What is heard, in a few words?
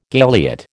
"galiot", American